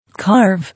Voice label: "Carve", English